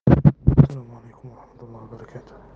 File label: voice message